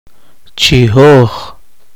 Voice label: poqomchi; seleccionado

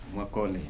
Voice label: "thank you", Kibembe

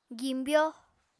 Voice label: "gimbyo", Apatani

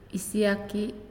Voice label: "Long", Naasioi

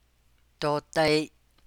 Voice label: "or", Navajo

